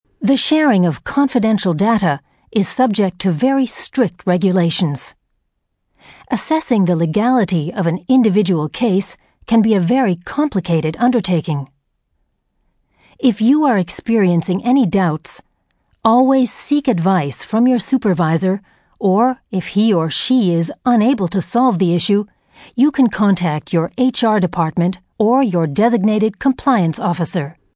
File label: corporate training